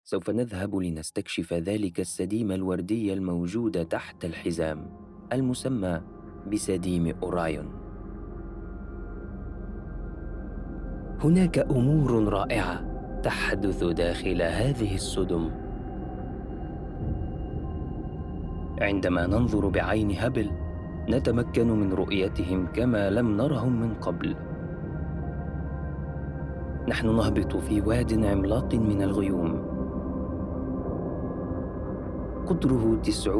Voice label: Documentary; Orion